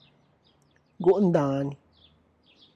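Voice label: wild bulls, Tlacochahuaya Zapotec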